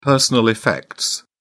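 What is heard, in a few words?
"personal effects", British English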